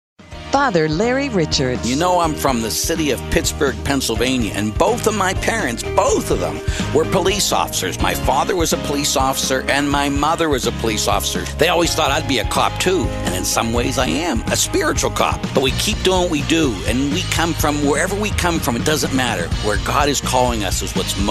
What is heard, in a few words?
Network Promo with sample tag